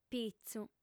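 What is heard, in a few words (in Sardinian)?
"pitzu", female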